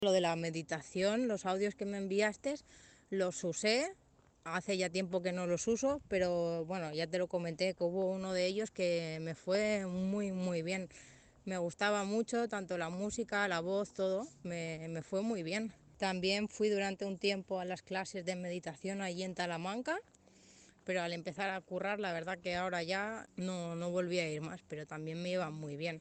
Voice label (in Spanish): testimonio